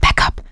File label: backup quiet, survivor voice; producer